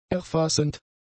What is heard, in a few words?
"erfassend", German; adjectives